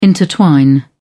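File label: "intertwine", British English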